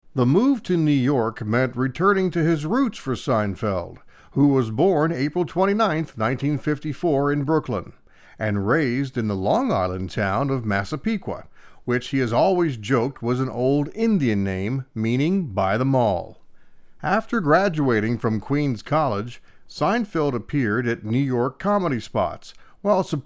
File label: biography narration